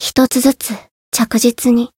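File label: Voice growth Japanese; ruina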